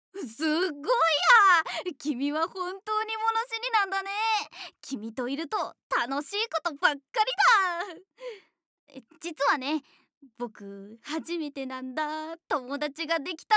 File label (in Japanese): 明るい少年